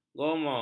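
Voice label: "gomol", Malay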